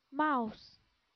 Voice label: "mouse", English